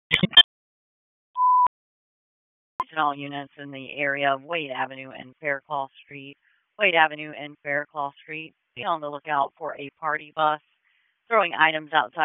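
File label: Police Southwest District Dispatch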